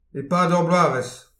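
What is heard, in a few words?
"e-pad ur bloavezh", Breton; petit precis de prononciation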